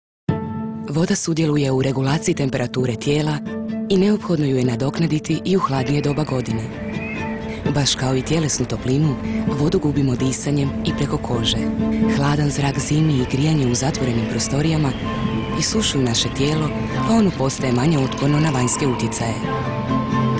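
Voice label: croatian female commercial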